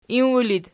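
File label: "inwalid" nominative, Archi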